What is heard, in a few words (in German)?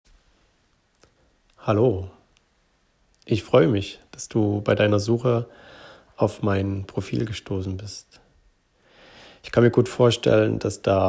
Escort Mann Audiovorstellung